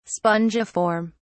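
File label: "spongiform", British English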